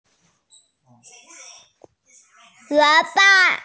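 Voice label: "huǒ bàn", Mandarin Chinese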